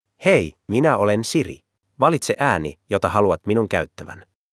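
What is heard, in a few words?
Finnish, Finland; iOS 26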